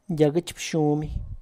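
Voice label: tlacochahuaya, Zapotec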